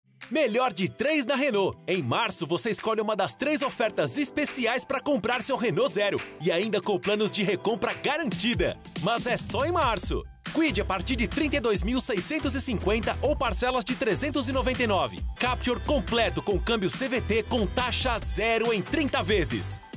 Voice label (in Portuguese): varejo jovem